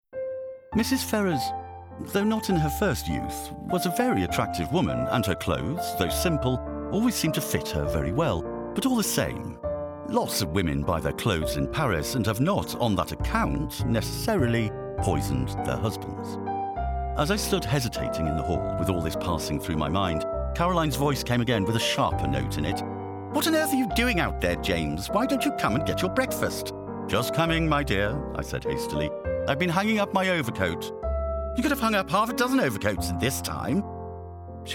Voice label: Narrative